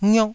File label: "ngiok4", taiwanese syllables